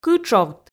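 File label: "кытшовт", Komi, female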